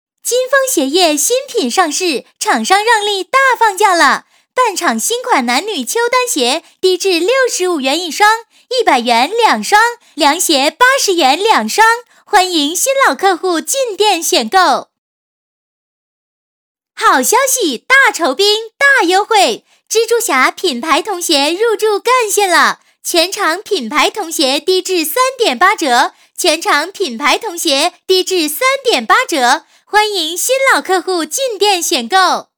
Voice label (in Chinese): 女 促销, 国语女声配音; 金峰鞋业